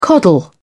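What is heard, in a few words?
"coddle", English, British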